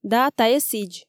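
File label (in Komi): "да, тайӧ сідз", Komi, female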